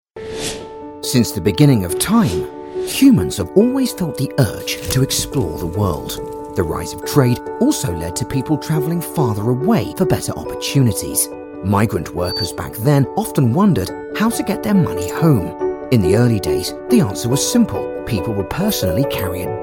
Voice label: Corporate